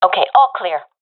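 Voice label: sees area clear, professional female; vo; Counter Strike Model Pack; CS:GO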